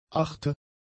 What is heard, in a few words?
"achte", german; adjectives